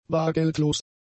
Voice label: "bargeldlos", German; adjectives

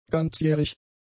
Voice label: "ganzjährig", German; adjectives

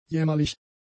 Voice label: "jämmerlich", german; adjectives

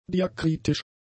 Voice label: "diakritisch", german; adjectives

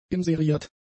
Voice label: "inseriert", German; adjectives

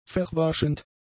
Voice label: "verwaschend", German; adjectives

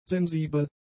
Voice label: "sensibel", German; adjectives

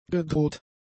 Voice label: "gedroht", German; adjectives

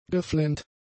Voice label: "geflennt", German; adjectives

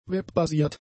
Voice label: "Web-basiert", German; adjectives

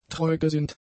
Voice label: "treugesinnt", German; adjectives

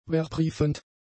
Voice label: "verbriefend", German; adjectives

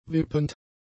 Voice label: "wippend", German; adjectives

